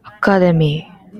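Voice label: "academy", Japanese, female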